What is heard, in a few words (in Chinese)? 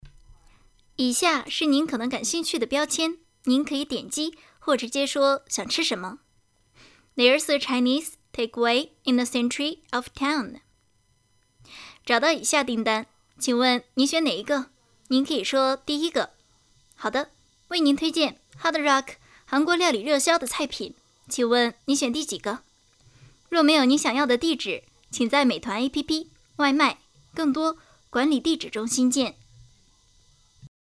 外卖试音